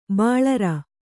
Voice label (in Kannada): "ಬೋಳರೆ", Kannada